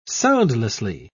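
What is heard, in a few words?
"soundlessly", British English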